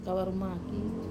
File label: "kavaru maki", mung bean, Wamesa